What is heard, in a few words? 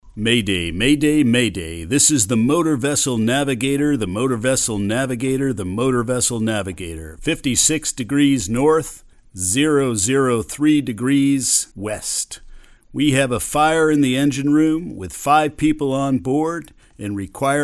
Exercise, Prepared Distress Call